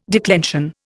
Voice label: "declension", Indian

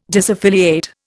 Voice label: "disaffiliate", Indian

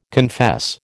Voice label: "confess", American

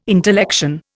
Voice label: "intellection", Indian

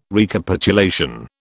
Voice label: "Recapitulation", British

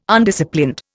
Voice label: "Undisciplined", Indian

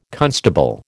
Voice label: "constable", American

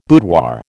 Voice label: "boudoir", American

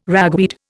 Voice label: "ragweed", Indian

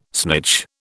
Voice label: "snitch", British

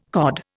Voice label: "COD", Indian

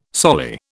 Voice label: "soli", British

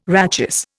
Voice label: "rachis", Indian

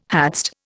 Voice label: "hadst", Indian

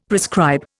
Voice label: "prescribe", Indian; Dictionary

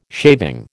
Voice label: "shaving", American

